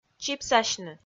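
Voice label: "чипсасьны", Komi-Zyrian, female; kpv audio dict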